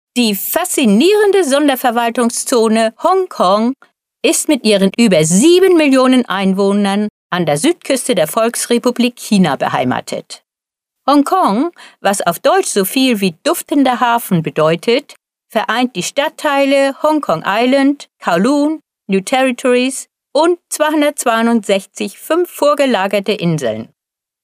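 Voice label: German female corporate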